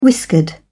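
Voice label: "whiskered", British English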